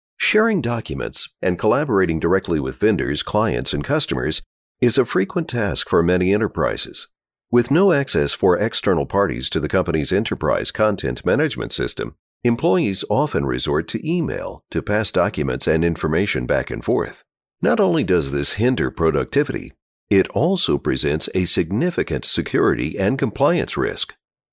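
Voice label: American English, male, voice over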